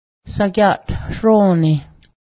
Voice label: dress up, Cayuga

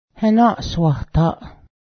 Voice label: firemen, Cayuga

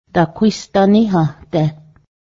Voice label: lend me money, Cayuga

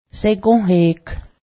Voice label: beat, Cayuga

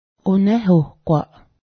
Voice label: corn soup lyed, Cayuga

